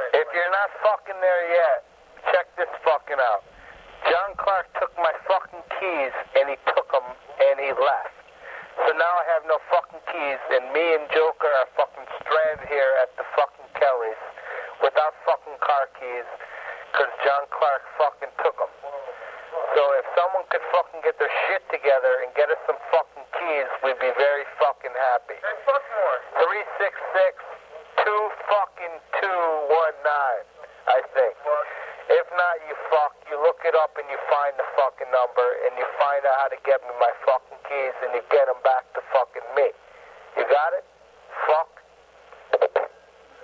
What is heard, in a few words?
Phone Msg